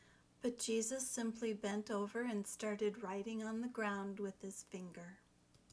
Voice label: but jesus normal speed